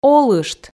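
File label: "олышт", Komi, female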